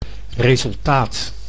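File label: "resultaat", Dutch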